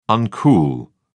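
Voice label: "uncool", British English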